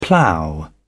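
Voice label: "plough on", British English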